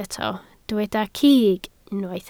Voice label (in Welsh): "cig", Welsh